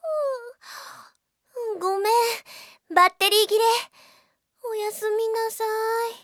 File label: battery off, Japan Anime Girls; Windows; Bing; Nanako